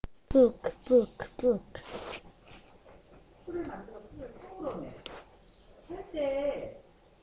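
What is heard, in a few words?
"book", spoken word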